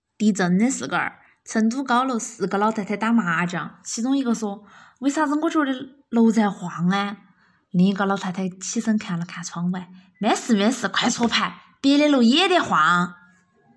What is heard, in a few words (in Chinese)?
方言